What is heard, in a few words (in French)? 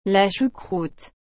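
"la choucroute", French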